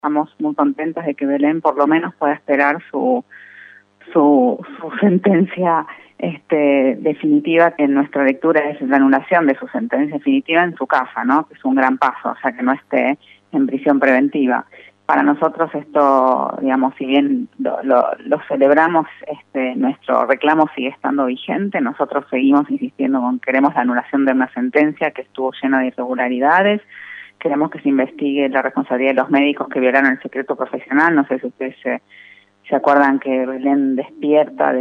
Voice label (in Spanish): Informativo; FARCO